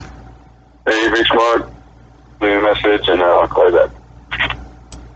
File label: voice message